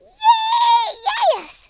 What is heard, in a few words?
"excellent", Southern Belle; speech